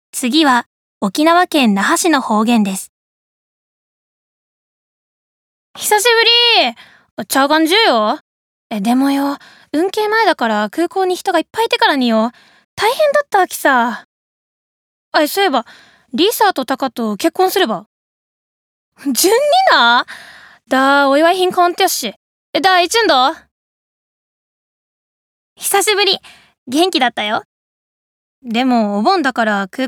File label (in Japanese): 方言